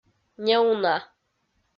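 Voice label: "неуна", Komi-Zyrian, female; kpv audio dict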